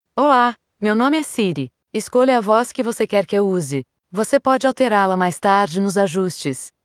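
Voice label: Brazilian Portuguese; iOS 26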